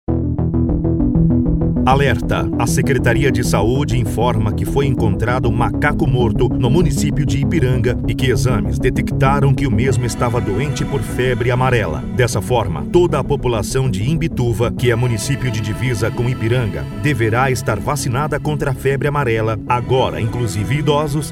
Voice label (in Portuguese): locutor